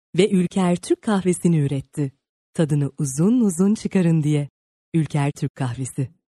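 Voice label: Turkish, female, commercial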